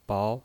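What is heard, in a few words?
"bao", Mandarin Chinese, second tone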